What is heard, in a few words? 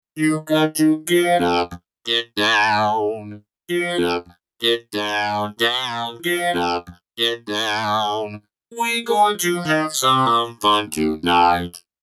vocoder